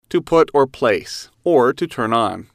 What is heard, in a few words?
"to put place to turn on", English